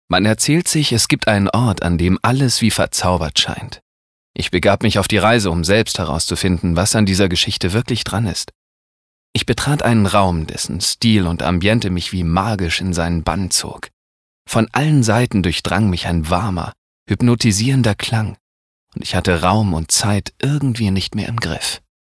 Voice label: Schauspiel, Sanft

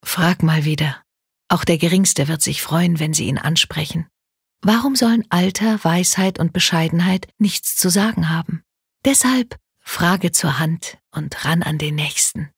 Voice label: Werbung Sanft

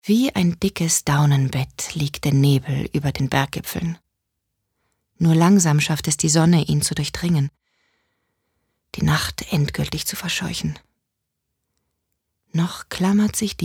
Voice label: Schauspiel Variabel

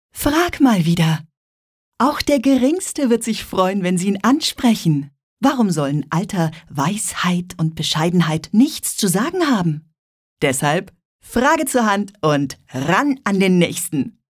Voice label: Werbung Dynamisch

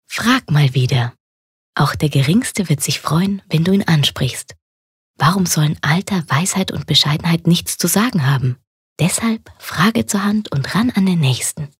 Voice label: Werbung, Sanft

